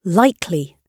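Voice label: "likely", British English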